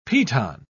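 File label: "piton", British English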